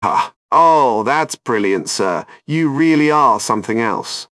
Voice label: amused; jarvis